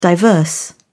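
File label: "diverse", British English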